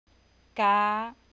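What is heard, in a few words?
"ก๊า", Thai, high tone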